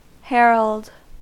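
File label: "herald", American English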